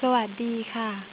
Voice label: woman